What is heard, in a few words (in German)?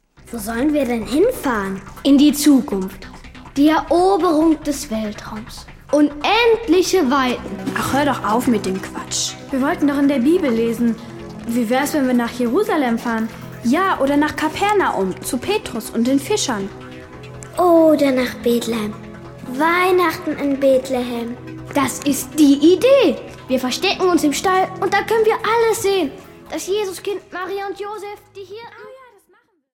Hoerszene; Die Gute Nachricht